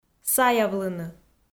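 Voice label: "саявлыны", Komi, female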